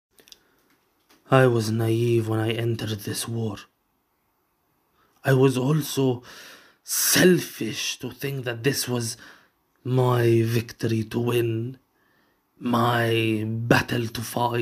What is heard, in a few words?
Arabic Accent